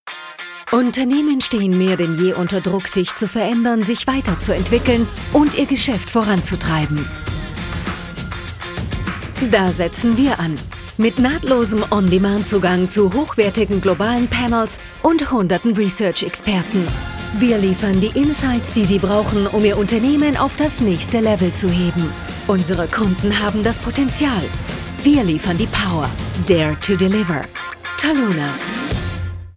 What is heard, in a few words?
commercial, German, female